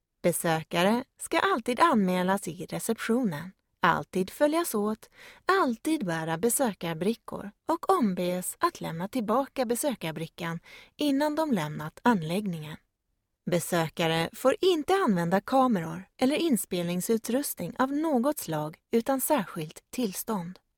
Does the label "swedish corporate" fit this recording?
Yes